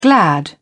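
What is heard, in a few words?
"glad", English, British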